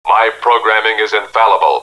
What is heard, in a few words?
my programming is infallible, robot; robot b9